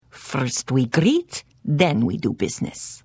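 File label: Service, Khajiit female; The Elder Scrolls III - Morrowind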